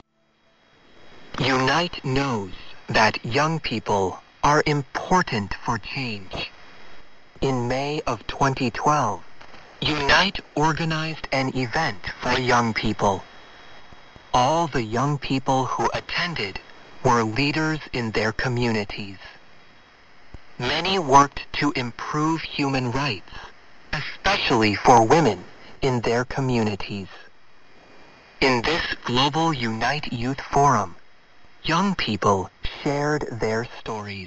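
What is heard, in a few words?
English AM; 15420,0; ASC; BBC; English Bay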